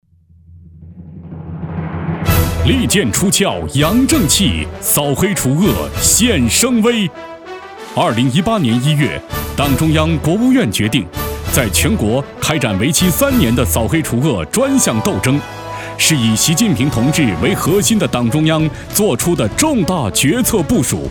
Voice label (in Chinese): 男 激情厚重 扫黑专题片